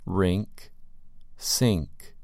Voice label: "rink" "sink"

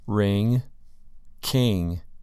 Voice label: "ring" "king"

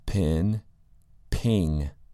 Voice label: "pin" "ping"

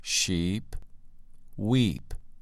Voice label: "sheep" "weep"

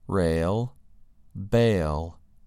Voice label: "rail" "bail"

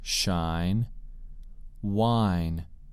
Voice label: "shine" "whine"

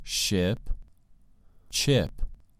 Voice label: "ship" "chip"

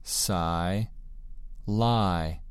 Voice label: "sigh" "lie"

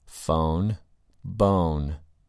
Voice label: "phone" "bone"

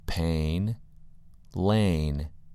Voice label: "pain" "lane"

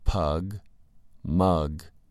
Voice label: "pug" "mug"

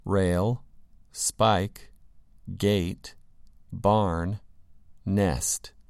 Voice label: "rail" "spike" "gate" "barn" "nest"

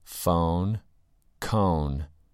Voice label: "phone" "cone"

